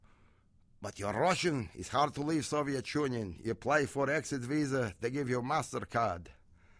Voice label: Russian